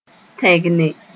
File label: "tẽgne", Moore